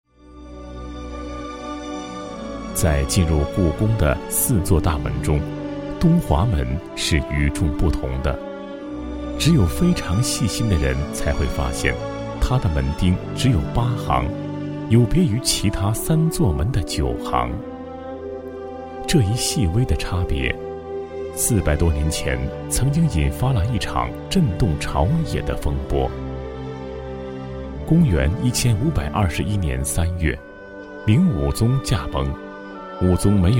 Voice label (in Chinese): 男 专题 纪录片风格 皇室 柔和磁性, 国语男声配音